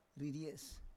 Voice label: "riries", Roon